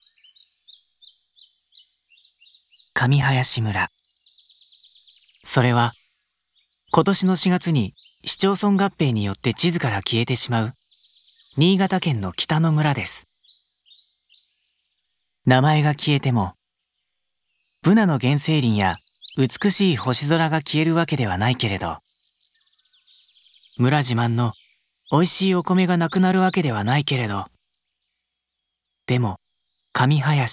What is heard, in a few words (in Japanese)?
長尺ナレーション